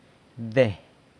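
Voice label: "de", San Lucas Quiaviní Zapotec; dust, powder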